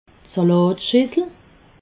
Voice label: "salatschissel", alsace

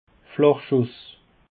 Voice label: "flachschuss", alsace

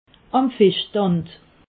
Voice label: "amfischstand", alsace

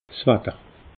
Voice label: "watter", alsace

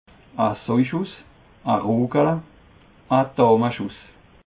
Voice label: "soischuss", alsace

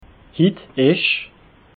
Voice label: "hitisch", alsace

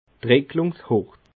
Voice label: "dricklungshord", alsace

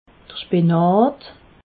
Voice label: "spinat", alsace

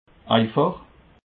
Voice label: "eifach", alsace

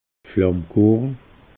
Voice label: "flammekueche", alsace

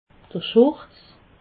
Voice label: "schurz", alsace

